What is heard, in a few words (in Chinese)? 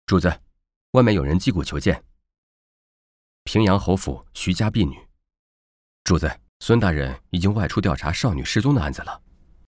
男 角色 有声; 青叔